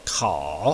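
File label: "kao", Mandarin Chinese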